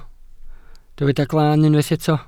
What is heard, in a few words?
"glaan", welsh